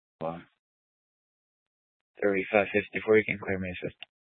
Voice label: Police Department Dispatch, scanner; Grand Junction Police Department Dispatch